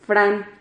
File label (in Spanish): "fran", Silabas